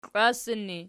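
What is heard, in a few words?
"klwassan" ergative, Archi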